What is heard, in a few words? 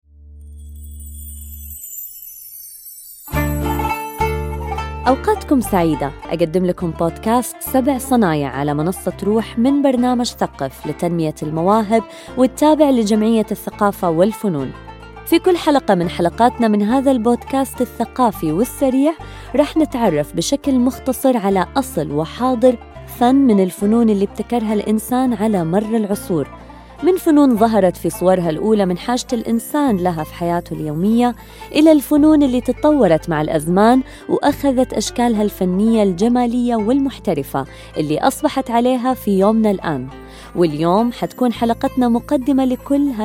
voiceover